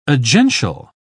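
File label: "agential", British English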